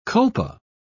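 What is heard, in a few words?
"culpa", British English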